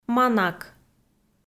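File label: "манак", Komi, female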